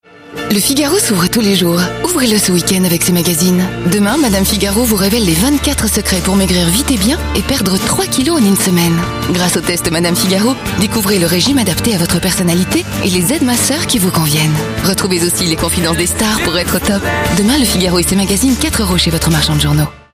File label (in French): tv dynamique enjouee; figaro